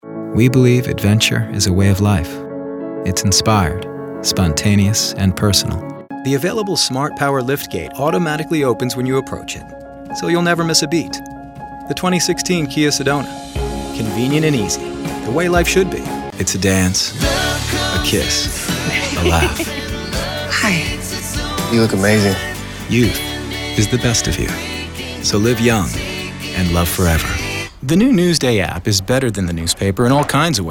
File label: Commercial